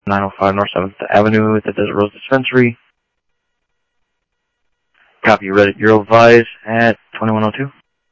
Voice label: Phoenix PD, scanner; PHOENIX PD-200 A; ThompsonPeakHigh